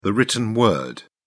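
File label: "written word", English, British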